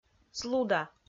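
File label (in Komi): "слуда", Komi, female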